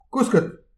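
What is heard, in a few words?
"kousket", Breton; petit precis de prononciation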